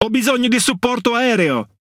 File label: It Speech Quick Commands Need Support Plane; World Of Warships